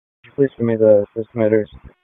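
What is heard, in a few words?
Raleigh Police Southeast District Dispatch, p25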